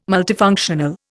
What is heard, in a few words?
"multifunctional", Indian